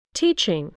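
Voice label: "teaching", English word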